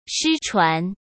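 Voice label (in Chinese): "失传", Mandarin; chinese flashcards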